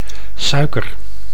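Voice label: "suiker", Dutch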